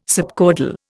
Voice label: "subcaudal", Indian

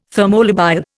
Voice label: "thermolabile", Indian

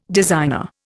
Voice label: "designer", Indian

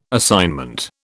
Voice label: "assignment", British

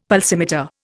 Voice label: "pulsimeter", Indian; Dictionary

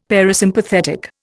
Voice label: "parasympathetic", Indian; Dictionary

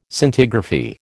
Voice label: "Scintigraphy", American

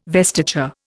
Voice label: "vestiture", Indian

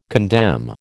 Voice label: "condemn", American

